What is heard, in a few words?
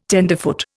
"tenderfoot", Indian